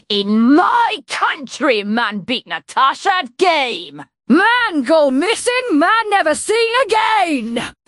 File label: upset; fertig classic games